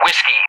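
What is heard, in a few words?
"Whiskey", English; Alphabet; DCS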